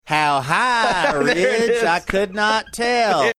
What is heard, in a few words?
how high a ridge I could not tell Texan